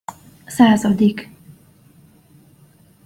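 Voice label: "szazadik", Hungarian pronunciation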